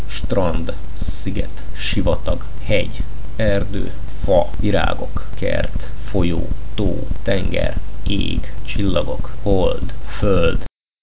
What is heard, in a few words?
hungarian nature